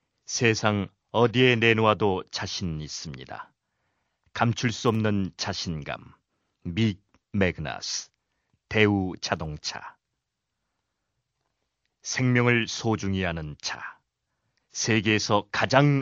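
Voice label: Korean, male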